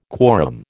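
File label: "quorum", American English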